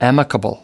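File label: "amicable", US English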